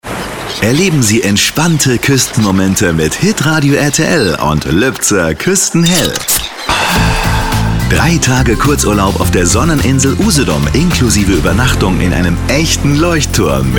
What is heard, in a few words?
Radio Promo, Commercial (Werbung), German; Funk Spot Lübzer; Hitradio RTL